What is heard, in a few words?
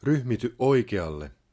Bear Right, Finnish, male; Opas